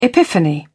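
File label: "epiphany", British English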